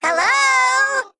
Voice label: Male Mech Low Cards; Annoy O

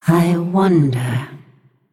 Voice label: Female Naga think

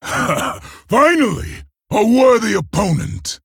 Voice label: Male Orc

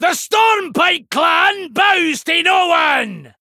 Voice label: Male Dwarf Play

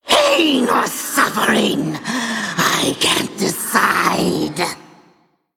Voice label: Male Undead

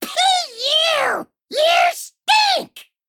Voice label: Male Demon

